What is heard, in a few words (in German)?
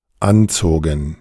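"anzogen", German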